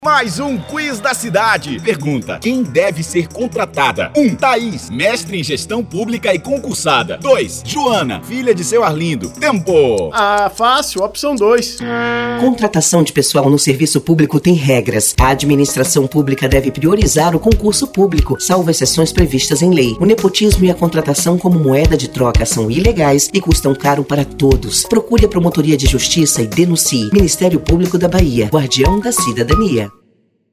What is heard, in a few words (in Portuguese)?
Spot; contratação irregular